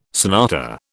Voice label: "sonata", British